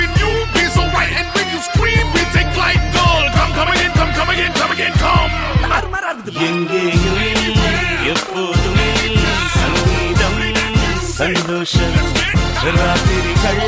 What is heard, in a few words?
Tamil